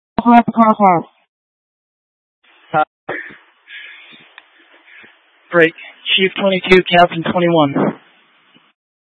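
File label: Incident Tactical 1, Scanner audio recording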